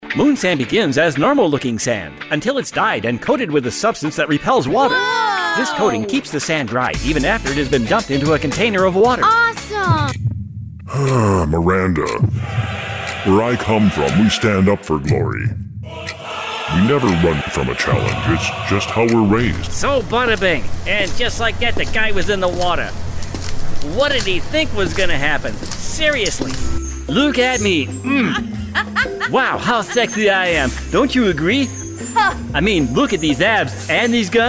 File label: Character